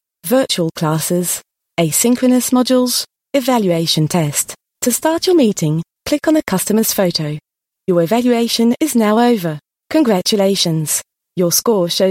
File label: E Learning Introduction